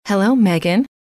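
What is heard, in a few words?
hello, female; trainer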